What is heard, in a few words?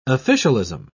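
"officialism", British English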